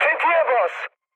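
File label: attack position, mood high, Turkish; speech; War Thunder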